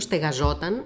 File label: Female conversational Athens